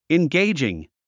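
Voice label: "engaging", English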